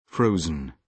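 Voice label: "frozen", British English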